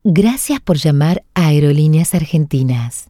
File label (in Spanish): ivr